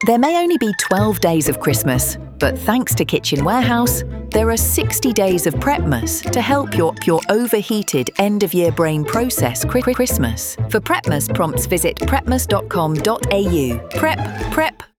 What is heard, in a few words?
Radio